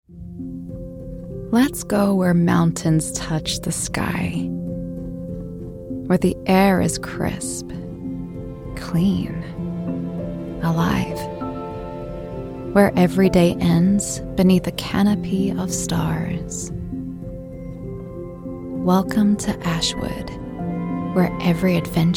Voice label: US Accent, Commercial; en-AU